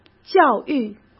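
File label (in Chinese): "教育", Mandarin Chinese; chinese-flashcards; hsk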